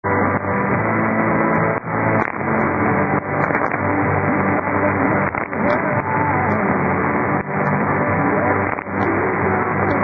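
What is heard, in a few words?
LUXEMBOURG radio; RTL, Junglinster 2315 UTC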